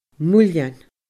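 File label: "muilleann", Scottish Gaelic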